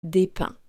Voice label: "des pains", French

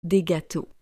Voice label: "des gâteaux", French

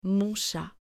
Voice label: "mon chat", French

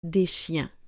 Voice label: "des chiens", French

